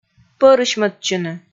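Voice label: "пӧрысьмӧдчыны", Komi, female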